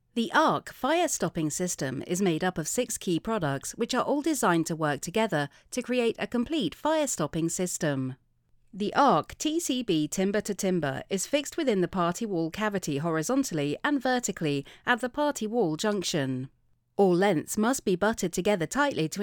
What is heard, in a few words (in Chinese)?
防火系统 解说